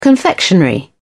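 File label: "confectionery", British English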